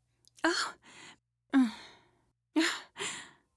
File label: Female Reaction Ah